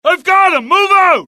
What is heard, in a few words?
suppress, dialogue generic US; mohaa